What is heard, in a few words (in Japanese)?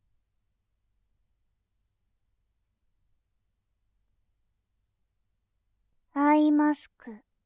「アイマスク」, ASMR